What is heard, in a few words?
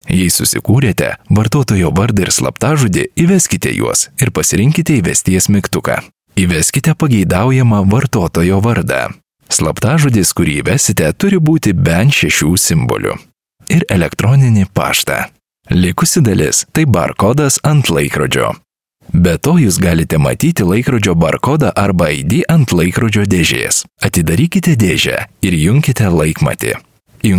Lithuanian Narrative friendly, Lithuanian Male